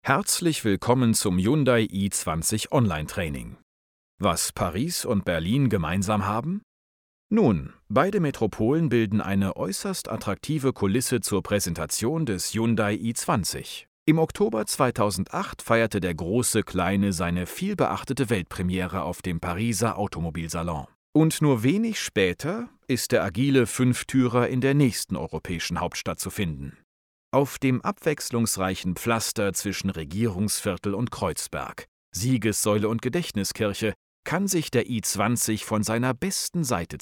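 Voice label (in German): elearning, deutsch männer; sprecher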